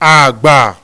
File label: Yoruba tone low low agba; Phonetics